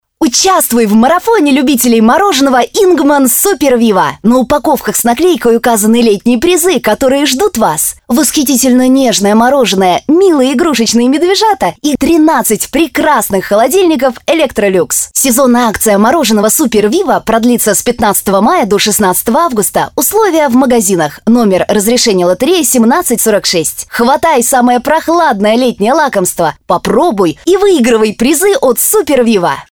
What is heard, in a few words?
russian f commercial; voice demos